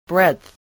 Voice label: "breadth", spelling